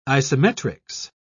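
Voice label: "isometrics", British English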